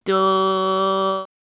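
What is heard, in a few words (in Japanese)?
"どぅ", Japanese syllable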